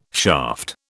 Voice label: "shaft", British

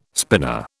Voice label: "spinner", British

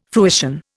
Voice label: "fruition", Indian

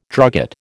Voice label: "drugget", American

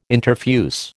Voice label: "interfuse", American

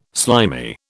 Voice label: "slimy", British

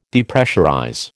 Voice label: "Depressurize", American

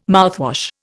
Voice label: "mouthwash", Indian

